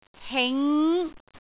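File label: "hing5", Cantonese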